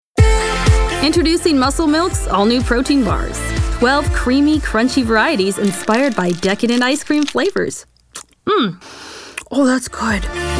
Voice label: Commercial